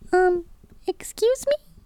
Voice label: Umm Excuse Me Little Cartoon Female

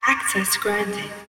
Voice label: Access Granted Female Soft Voice

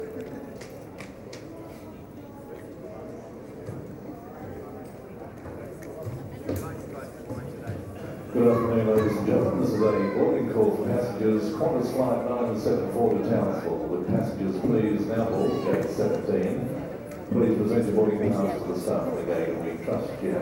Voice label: Airport Lounge Announcement

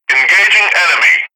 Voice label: Military Radio Voice Engaging Enemy

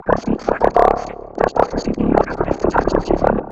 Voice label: Alien Voice M

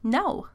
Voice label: "No" With Attitude

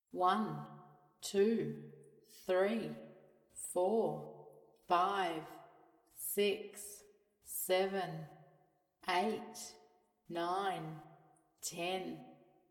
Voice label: Counting 1 To 10 Australian Female Voice

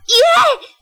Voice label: Japanese No

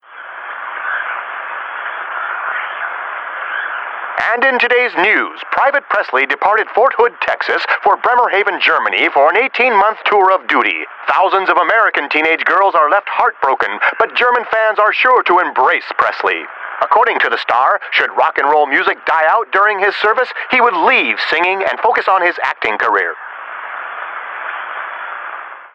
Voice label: Radio Announcer

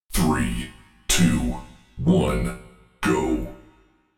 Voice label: Robotic Countdown

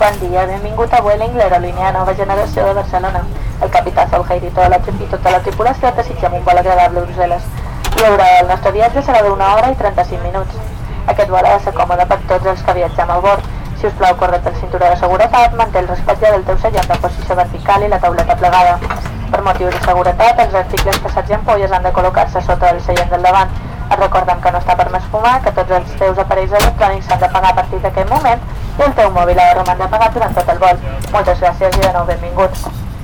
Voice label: Announcement In Airplane Distorted

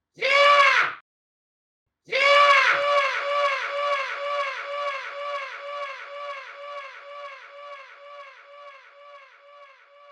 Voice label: Yeah Dry

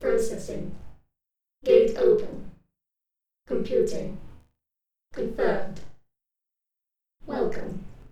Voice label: Female Android

